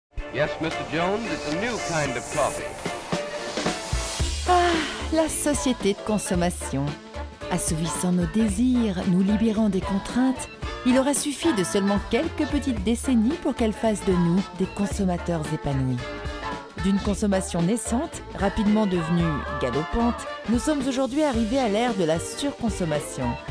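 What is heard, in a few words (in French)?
narrative legere, comédienne voix off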